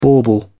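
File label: "bauble", British English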